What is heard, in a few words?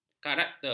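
"karakter", Malay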